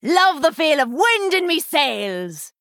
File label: Female Human